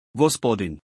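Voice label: "gospodin", Croatian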